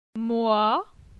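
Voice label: "Moi", French; phonetique; la syllabe oi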